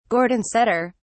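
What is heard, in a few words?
"gordon setter", English, British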